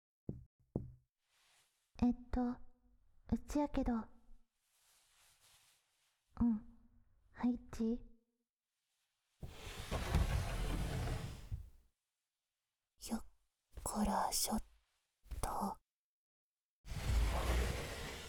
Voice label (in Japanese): 田舎の古宿ン娘の夜伽 宮崎弁